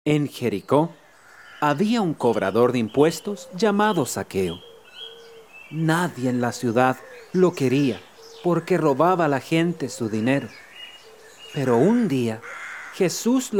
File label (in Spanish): Locucion